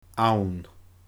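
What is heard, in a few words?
"ann", Scottish Gaelic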